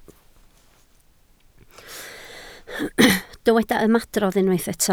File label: "ymadrodd", Welsh